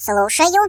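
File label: "Yes sir", Russian; hedgewars; Default ru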